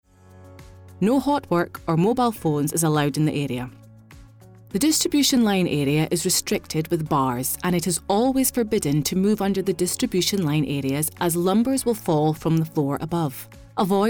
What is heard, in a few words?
Safety in the Workplace Explainer